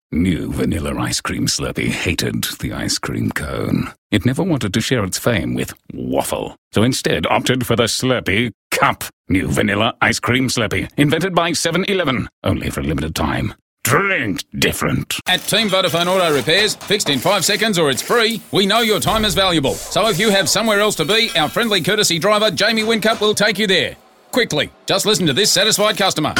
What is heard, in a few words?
Quirky